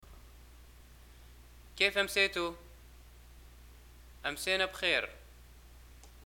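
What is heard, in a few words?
"greeting evening", Libyan Arabic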